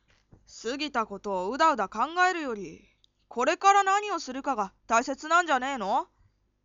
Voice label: boy